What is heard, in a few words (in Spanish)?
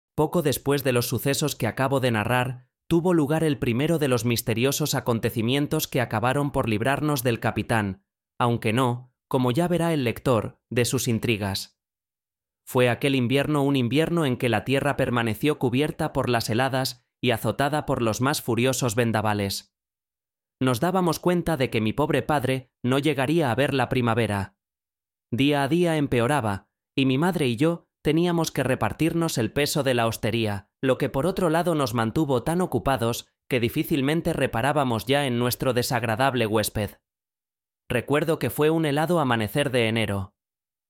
Voz sintetica; Intelivoz; La Isla el Tesoro